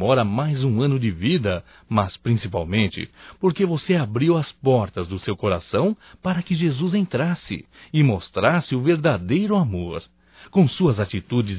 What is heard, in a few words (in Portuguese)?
Aniversário Irmã Evangélico Masculino; Aniversarios; Telemensagens 2017